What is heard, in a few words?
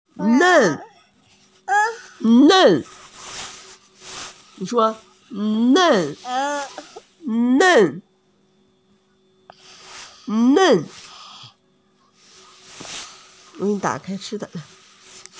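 "nen4", Mandarin Chinese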